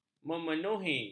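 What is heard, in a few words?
"memenuhi", Malay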